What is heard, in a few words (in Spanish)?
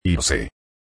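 "irse", español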